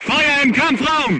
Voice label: voice message fire in tank, crew tanks german

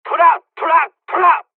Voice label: japanese first wave, dialogs turkish; War Thunder

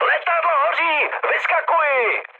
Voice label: on fire, speech cze, mood high; War Thunder

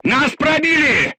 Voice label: voice message player tank hit, crew tanks russian

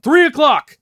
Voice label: flank right, voice; Escape from Tarkov

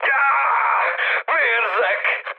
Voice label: damaged pilot, speech hun; War Thunder

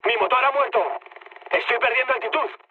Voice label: damaged engine, mood med, speech; War Thunder

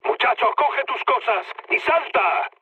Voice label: bailout, mood med, speech; War Thunder

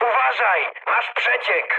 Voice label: damaged water mood high, speech pol; War Thunder

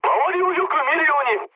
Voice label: jp chat; War Thunder

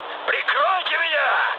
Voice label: aircraft damaged, mood med, speech ru

